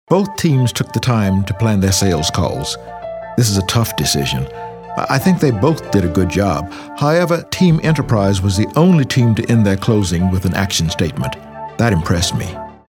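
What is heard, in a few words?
50s 60s African American Male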